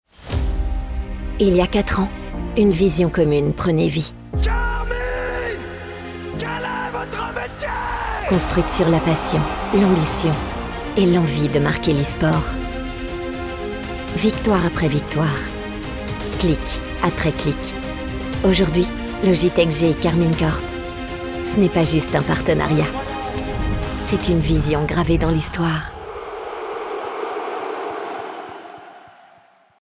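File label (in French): Publicité, Comédienne, Voix off; GULLI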